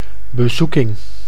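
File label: "bezoeking", Dutch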